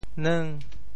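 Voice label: "neung5", Teochew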